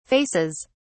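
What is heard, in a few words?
"faces", British English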